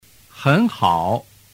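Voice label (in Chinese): hen hao, Chinese; doi dau giong; giao trinh 301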